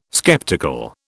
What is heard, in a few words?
"skeptical", British